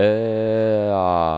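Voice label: schwa a, female; akustik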